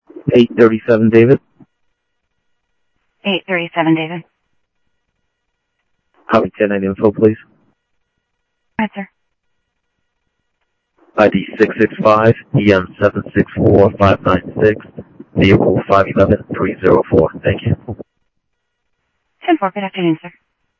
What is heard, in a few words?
Central Sheriff Central, scanner audio trunk; MCSO Central Sheriff-Central; ThompsonPeakScot